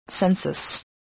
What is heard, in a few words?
"census", spelling